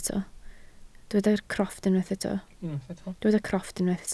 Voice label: "crofft", Welsh